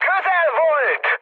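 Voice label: Hungarian bomb failure mood high, speech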